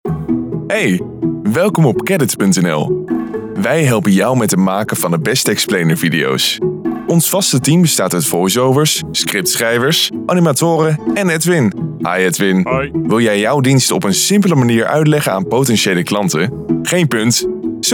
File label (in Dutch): Explainervideo